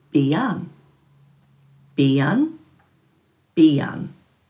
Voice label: "biyan", Dhurga